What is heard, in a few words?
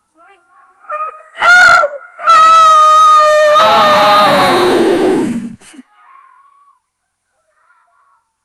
a voice woman say uhm; yelling